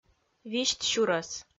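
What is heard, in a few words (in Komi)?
"висьт сюрӧс", Komi, female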